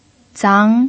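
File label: "zang2", Mandarin Chinese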